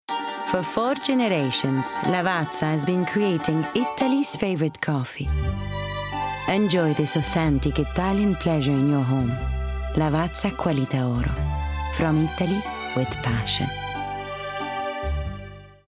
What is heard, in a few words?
English Italian Accent; Lavazza